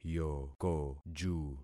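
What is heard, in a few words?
"yokoju", concatenated syllables; Affixing experiment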